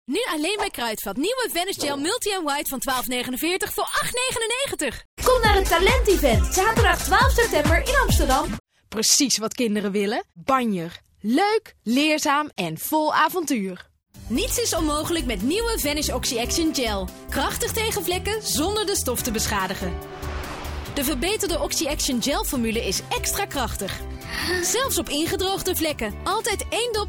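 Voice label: Dutch female commercial; voice demos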